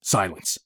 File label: silence; Escape from Tarkov